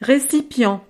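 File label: "recipient", French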